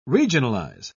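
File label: "regionalize", British English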